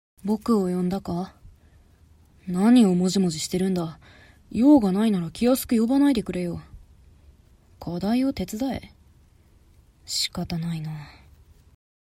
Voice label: boy